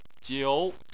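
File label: "jiu" second tone, Chinese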